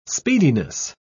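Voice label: "speediness", English, British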